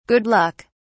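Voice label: "good luck", British English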